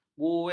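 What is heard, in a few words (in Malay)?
"buih", Malay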